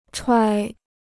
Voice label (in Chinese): "揣", Chinese